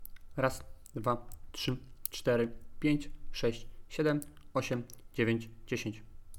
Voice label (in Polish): bez popfiltra